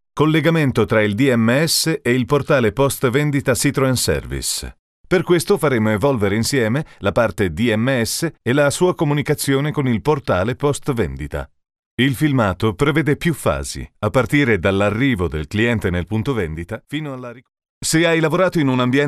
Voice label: Corporate